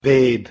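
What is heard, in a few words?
"ved" Hindi, male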